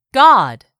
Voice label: "God", English; 3000 words